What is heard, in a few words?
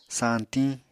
"sañtiñ", Apatani